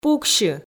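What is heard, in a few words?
"пуксьы" Komi, female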